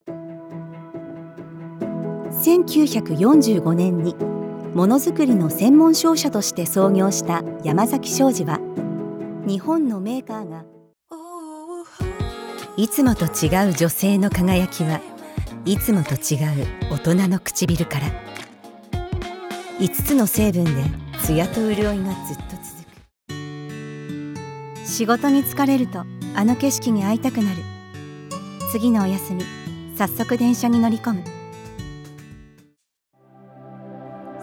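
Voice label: japanese commercial, female Japanese; voice actor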